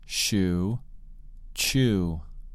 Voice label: "shoe" "chew"